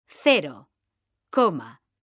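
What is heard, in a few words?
cero coma, Spanish, female; mekanta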